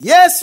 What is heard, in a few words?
"yes", ragga man